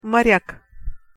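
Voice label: "morjak", Russian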